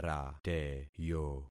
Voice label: "rateyo", concat syllables; Affixing experiment